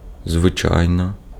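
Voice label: Ukrainian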